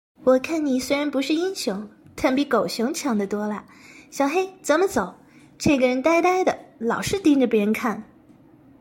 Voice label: 少女

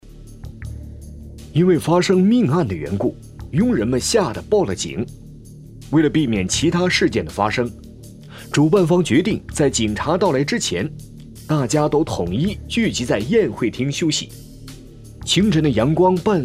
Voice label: 悬疑

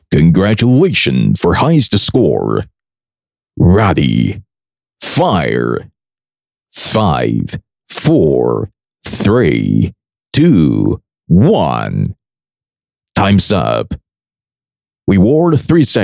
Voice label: 游戏 厚重 射击游戏 英文 配音

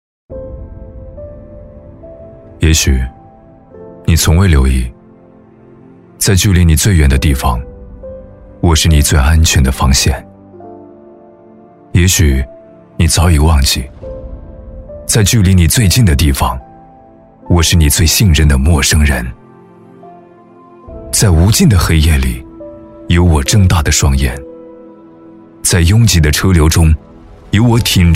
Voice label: 低沉冷酷我是警察

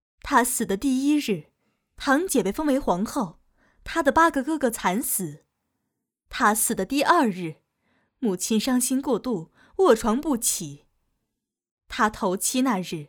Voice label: 旁白; 弃女轻狂半夏

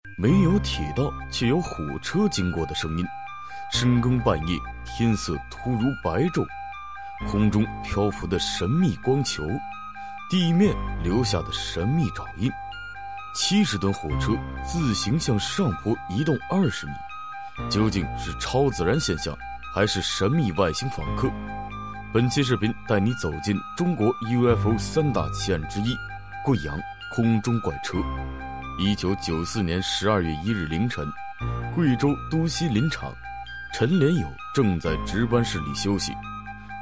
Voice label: 神秘代入

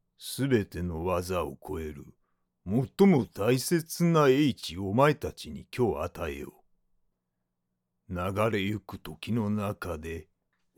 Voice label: 角色模仿老人

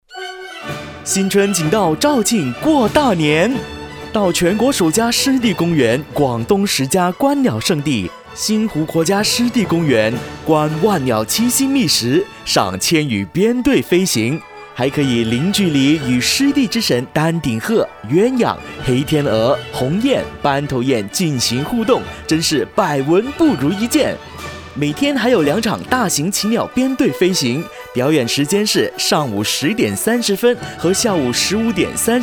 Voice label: 湿地公园宣传

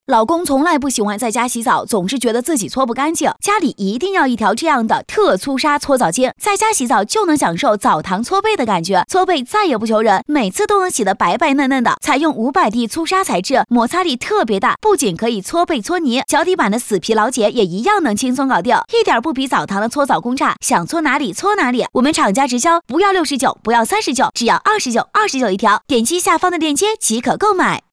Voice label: 信息流我老公从来不在家洗澡

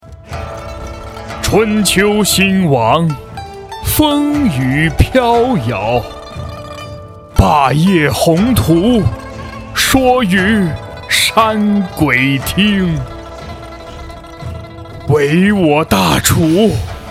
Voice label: 中年音

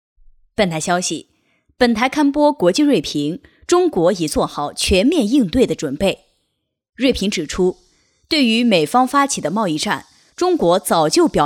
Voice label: 新闻; 国际锐评中国已做好全面应对的准备

